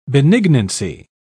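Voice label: "benignancy", English, British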